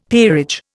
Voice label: "peerage", Indian; Dictionary